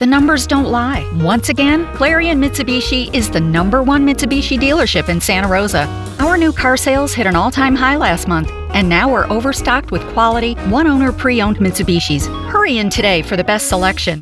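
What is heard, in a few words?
voice over demos; Mitsubishi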